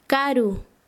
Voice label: Chanka, quechua; lejos